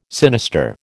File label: "sinister", American